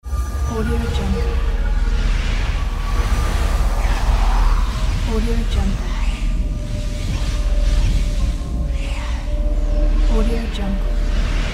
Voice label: demon whispering